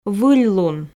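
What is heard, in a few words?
"выльлун", Komi, female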